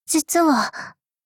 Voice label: sad